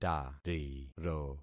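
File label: "tariro", concatenated syllables; Affixing experiment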